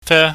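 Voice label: "fe", Cantonese, high falling tone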